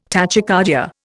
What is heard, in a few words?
"tachycardia", Indian